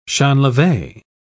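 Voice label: "champleve", British English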